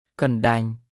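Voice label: "កណ្ដាញ់", Khmer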